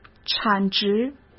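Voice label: "产值", Mandarin Chinese; chinese flashcards; hsk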